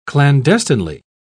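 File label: "clandestinely", British English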